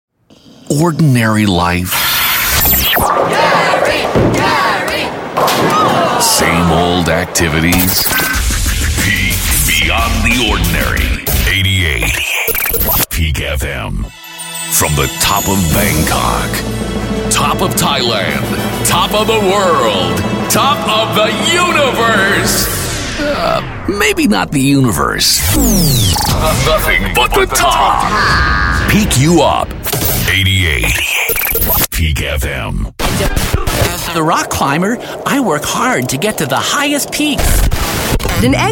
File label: Radio Jingles Liners